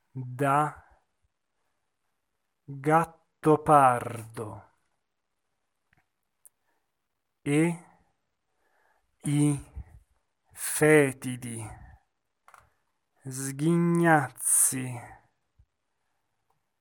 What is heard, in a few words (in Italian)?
monotono; Falstaff